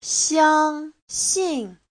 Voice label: "xiang1 xin4", Chinese; 相信; Giáo trình chuẩn HSK3